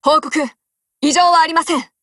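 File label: HELLO Japanese, Voice; GF Alarm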